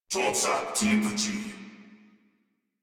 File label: Patrol Crewmen, Battle Barks; Dialog; Warframe; Corpus Crewmen; Crewman C